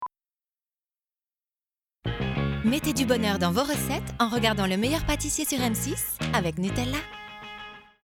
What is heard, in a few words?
Billboard; Le Meilleur Pâtissier